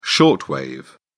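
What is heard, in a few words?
"short wave", British English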